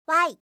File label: "y", alphabet